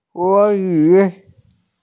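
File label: "crocodile", Mandarin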